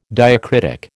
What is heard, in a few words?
"diacritic", American